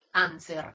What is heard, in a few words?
"anser", Latin